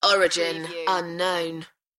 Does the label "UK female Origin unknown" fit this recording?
Yes